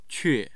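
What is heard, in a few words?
"que4", Mandarin Chinese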